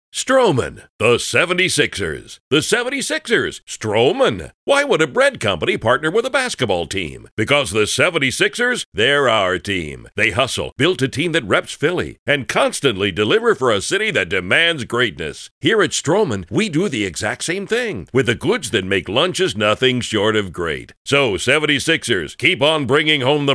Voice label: Radio Spot